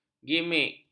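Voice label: "gimik", Malay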